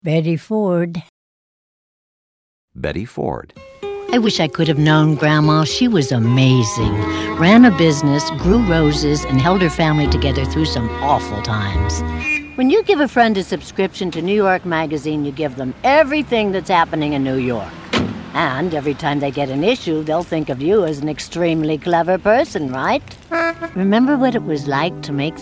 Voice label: commercial; Innovative LA